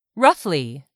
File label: "ROUGHLY", english; 3000 words